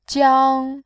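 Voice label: "jiang", Mandarin, first tone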